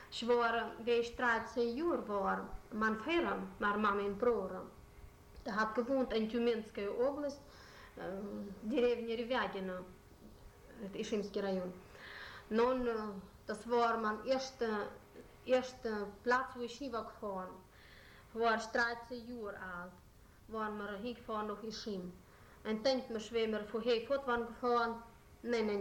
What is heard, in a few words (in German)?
reise nach ischym hessisch